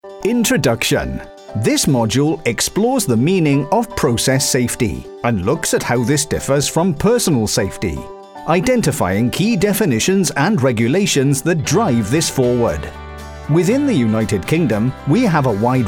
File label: Welsh Male, elearning voices